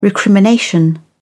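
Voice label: "recrimination", British English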